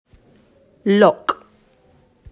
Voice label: "Lough" English; aprender ingles; pronunciacion de ough